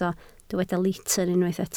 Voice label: "litr", Welsh

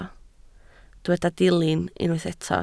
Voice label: "dilyn" Welsh

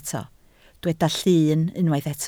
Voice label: "llyn", Welsh

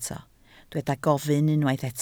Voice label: "gofyn", Welsh

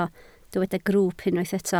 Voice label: "grwwp" Welsh

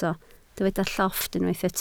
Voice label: "llofft", Welsh

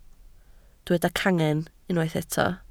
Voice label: "cangen", Welsh

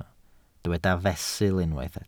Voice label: "fesul", Welsh

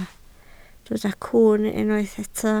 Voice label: "cwwn" Welsh

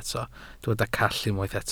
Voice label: "call", Welsh

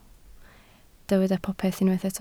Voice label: "popeth" Welsh

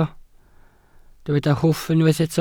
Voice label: "hwff" Welsh

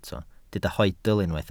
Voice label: "hoedl", Welsh

